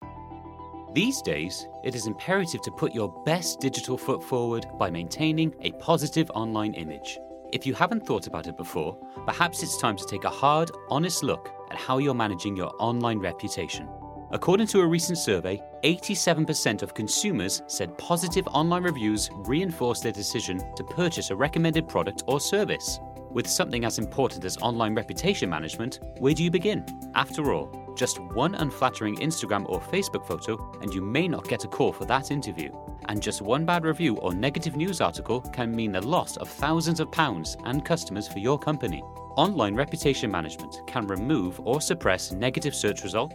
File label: english british corporate videos